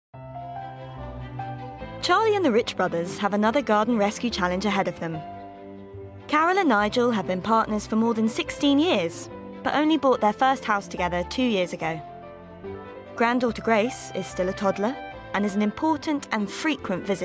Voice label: Documentary

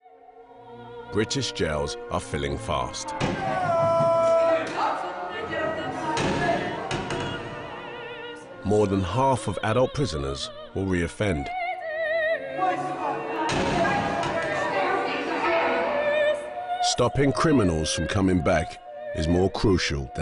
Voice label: Narrative Clip; The Clink

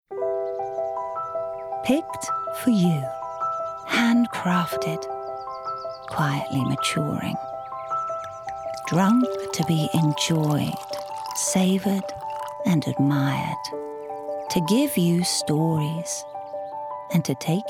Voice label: Sexy smooth intimate; Chapel Down Wine

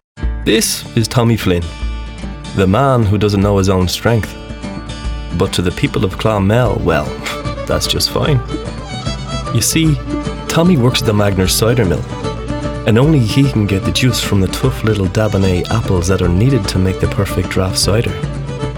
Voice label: southern

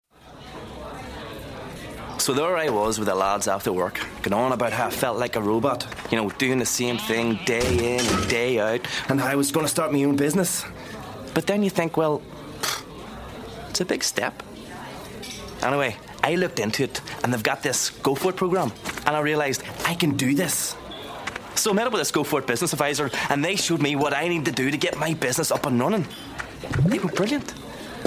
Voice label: natural

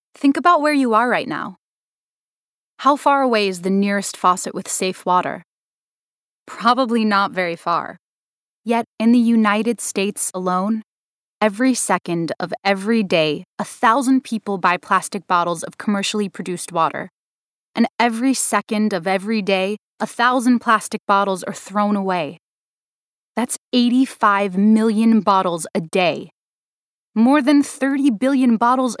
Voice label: VO Reel Corporate Narrative NO MUSIC

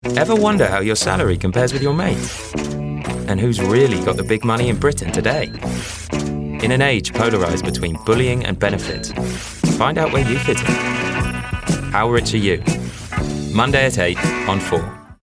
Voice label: promo

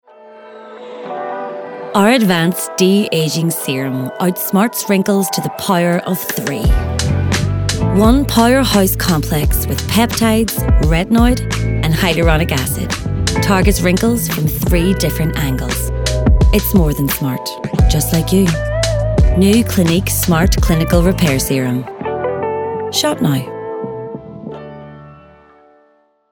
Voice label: Ad; Clinique

